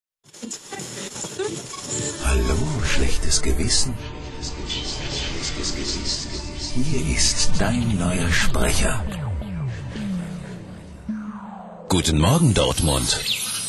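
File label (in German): werbung, männer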